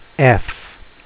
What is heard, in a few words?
name f, phonics; フォニックス